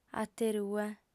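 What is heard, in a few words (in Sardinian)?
"aterue", female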